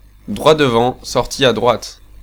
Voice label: Exit Right, French, male